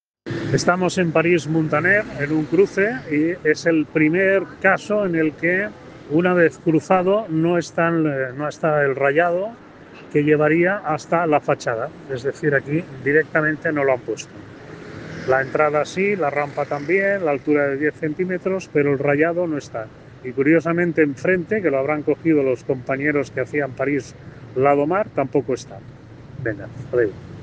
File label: Voice message